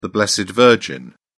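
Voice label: "blessed virgin", British English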